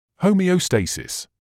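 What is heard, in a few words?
"homeostasis", British English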